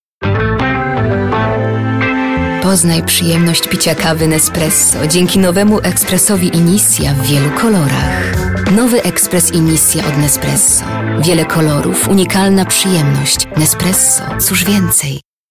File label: Female VO Commercial